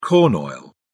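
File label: "corn oil", British English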